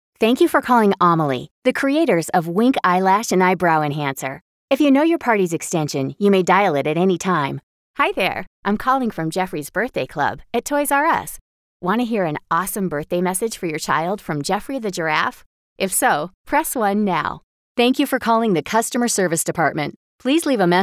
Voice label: women telephone; The voice of your brand. Easy-on-the-ears, authentic, and natural. Engaging, warm, and fun. Contemporary and ageless.